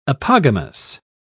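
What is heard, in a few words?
"apogamous", British English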